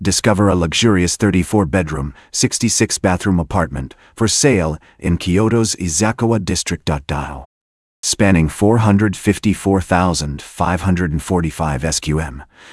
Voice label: narration audio